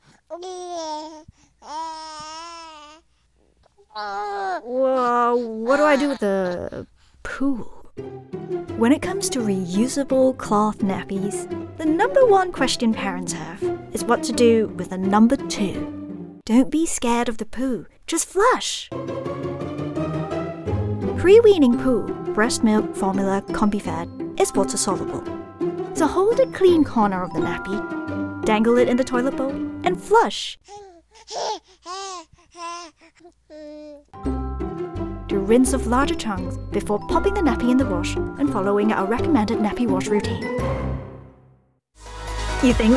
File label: Corporate Narration